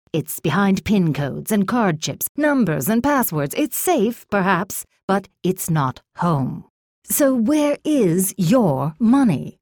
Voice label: Oldschool Dramatic